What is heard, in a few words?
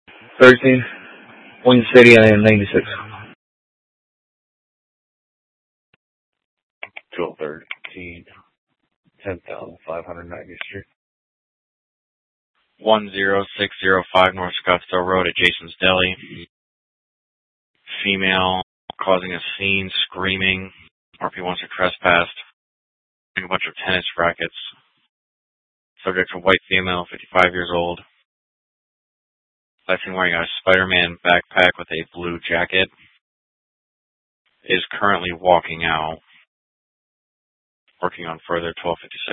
Dispatch; Scottsdale